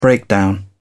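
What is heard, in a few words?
"breakdown", British English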